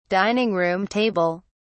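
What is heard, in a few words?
"dining-room table", British English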